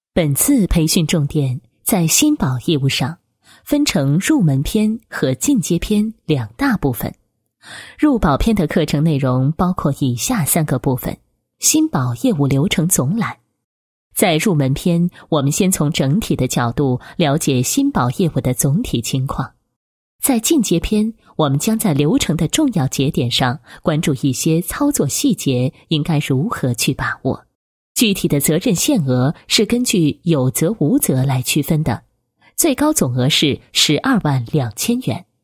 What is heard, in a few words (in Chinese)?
女-课件-保险 培训课程内容简介, 配音案例